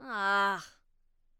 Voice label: Emote Negative